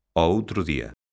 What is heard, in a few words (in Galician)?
"ao outro día", lingua galega; locución adverbial; ɔowtɾoˈðia̝